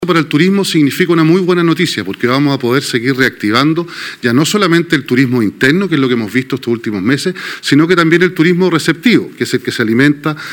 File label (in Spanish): CUÑA; URIARTE